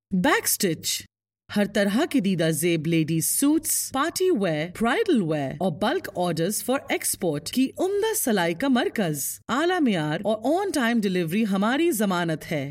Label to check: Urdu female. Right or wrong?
Right